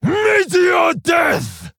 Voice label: Male Orc Hero Power; Samuro; Story Kurtrus Mission1